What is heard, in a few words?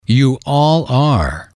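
yall are temporary, male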